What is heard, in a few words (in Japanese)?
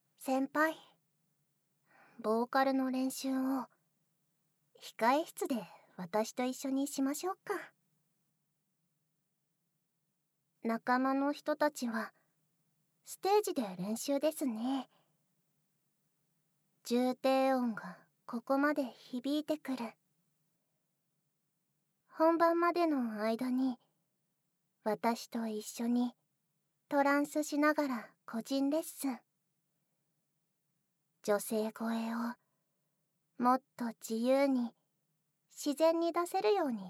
催眠誘導と深化 重低音なし; 男の娘アイドル